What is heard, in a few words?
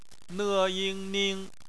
"ning", Chinese; bpmf